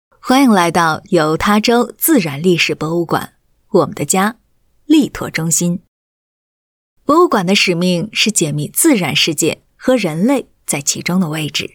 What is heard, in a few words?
Mandarin China Narration; Natural History Museum of Utah